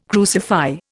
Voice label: "crucify", Indian